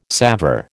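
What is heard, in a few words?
"salver", American English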